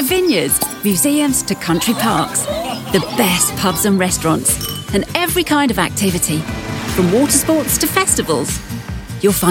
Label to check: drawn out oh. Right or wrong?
Wrong